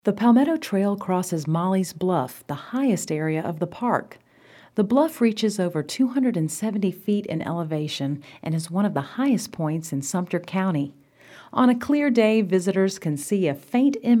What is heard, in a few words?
Reading